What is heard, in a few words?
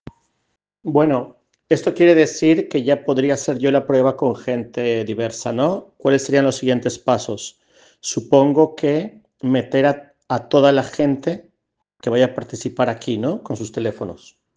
Voice message; cartografia critica